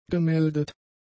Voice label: "gemeldet", German; adjectives

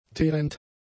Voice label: "teerend", German; adjectives

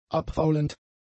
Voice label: "abfaulend", German; adjectives

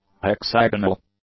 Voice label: "hexagonal", English; adjectives

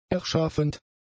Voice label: "erschaffend", German; adjectives

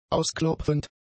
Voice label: "ausklopfend", German; adjectives

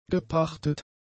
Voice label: "gepachtet", German; adjectives

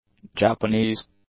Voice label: "Japanese", english; adjectives

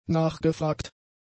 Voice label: "nachgefragt", German; adjectives

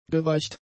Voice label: "geweicht", German; adjectives

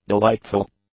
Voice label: "delightful", english; adjectives

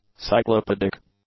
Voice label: "cyclopedic", English; adjectives

